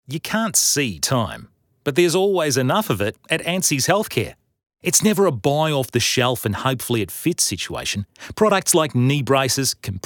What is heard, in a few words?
accents